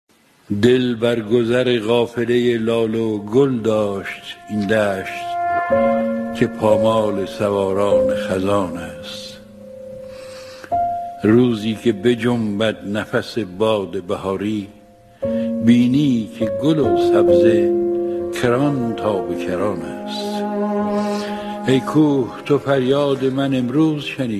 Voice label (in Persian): شعرخوانی; شعرخوانی هوشنگ ابتهاج